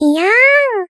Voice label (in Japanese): Gag iyaan sexy No processing